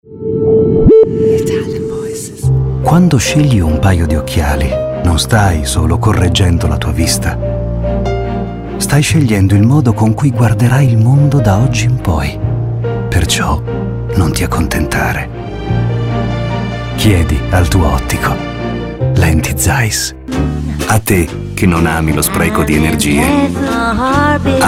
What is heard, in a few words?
Smooth Intense, Male Voices, Italian voices